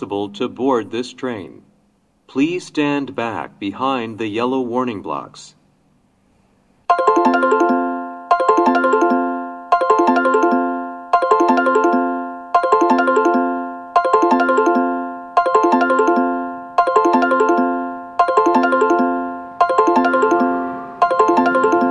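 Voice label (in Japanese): sekkin pass, 接近放送 【男声】 通過電車; 放送は「通過電車」です。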